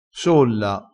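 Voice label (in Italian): "solla", vocabolario dialettale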